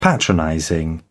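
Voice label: "patronizing", British English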